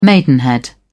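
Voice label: "maidenhead", British English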